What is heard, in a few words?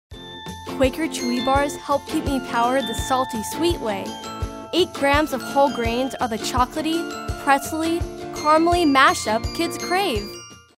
retail youthful upbeat